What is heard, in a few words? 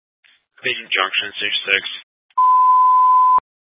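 Fire Dispatch, scanner audio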